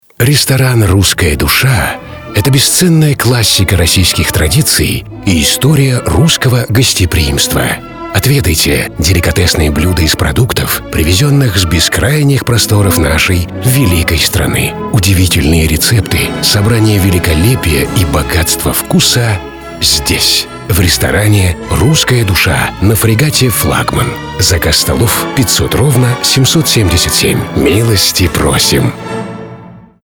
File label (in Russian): Информационный ролик; Ресторан Русская Душа